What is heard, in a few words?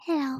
Girl "Hello"